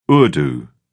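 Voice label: "urdu", British English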